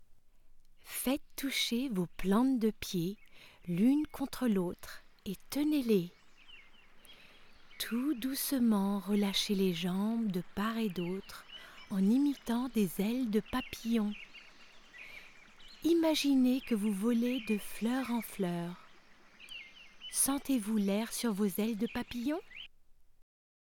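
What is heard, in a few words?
French Yoga Kids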